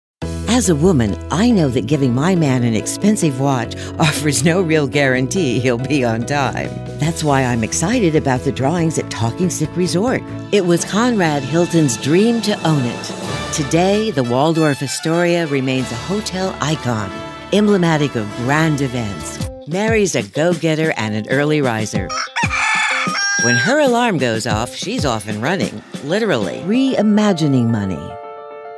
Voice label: Commercial